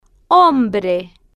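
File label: "hombre", Spanish, slow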